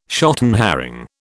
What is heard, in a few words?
"shotten herring", British